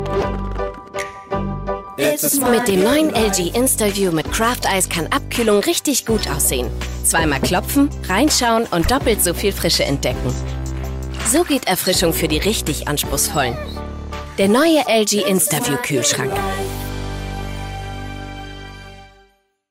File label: Voiceover; LG